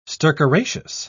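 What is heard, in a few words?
"stercoraceous", British English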